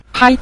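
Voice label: "pai", Mandarin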